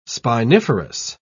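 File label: "spiniferous", British English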